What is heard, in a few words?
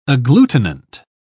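"agglutinant", British English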